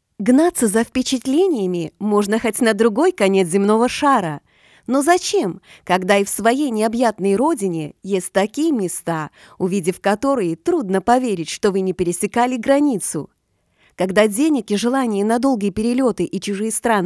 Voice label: lithuanian female commercial